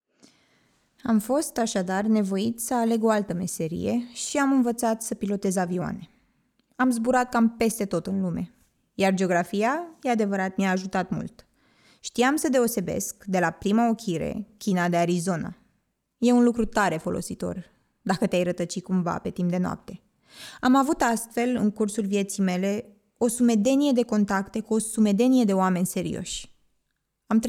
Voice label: Speaking Romanian